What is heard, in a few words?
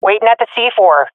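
swat female; omw to loosebomb; Counter Strike; CS:GO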